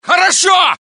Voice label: Russian; mohaa; dialogue